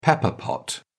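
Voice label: "pepper pot", British English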